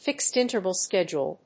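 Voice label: "fixed interval schedule", English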